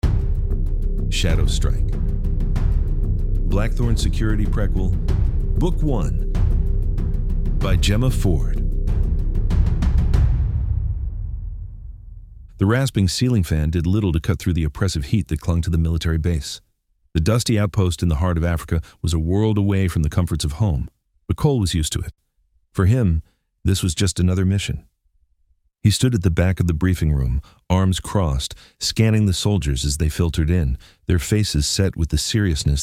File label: intro chapter audiobook; Shadow Strike; the blackthorn security audiobook series